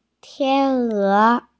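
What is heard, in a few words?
"tian1e2", Mandarin Chinese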